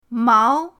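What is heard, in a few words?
"mao", Mandarin pinyin, second tone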